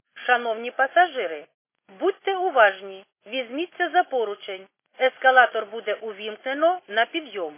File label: khs st female, subway station